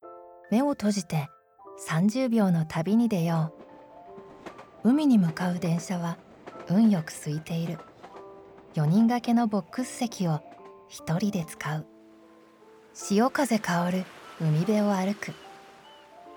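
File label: whispery conversational, English Voice Over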